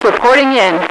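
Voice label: "reportin", female radio voice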